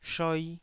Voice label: "shoi2", taiwanese